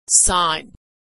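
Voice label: "signed", American English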